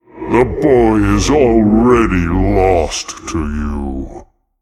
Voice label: Male Demon; MalGanis; Uther

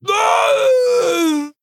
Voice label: Female Quilboar Death

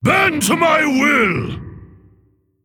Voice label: Male Mogu Attack

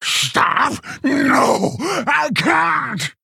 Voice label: Female Quilboar; Sangtusk; Story Kurtrus Mission3 Intro

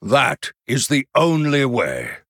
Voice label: Male Human greetings

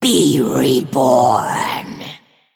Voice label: Female Nerubian; Zarzhet; Garrosh; Mission3 Hero Power

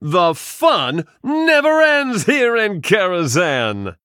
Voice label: Male Human Picked

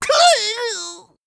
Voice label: Goblin Emote Death

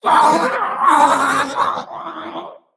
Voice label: Male Faldorei Death

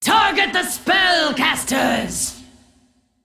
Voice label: Female Dragon Boss Uses Hero Power

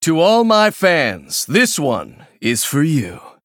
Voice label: Male Human Emote Start

